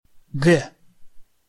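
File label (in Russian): "г", Russian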